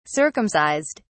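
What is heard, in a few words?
"circumcised", British English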